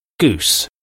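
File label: "goose", English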